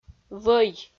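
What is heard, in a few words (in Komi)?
"вӧй", Komi, female; audio dict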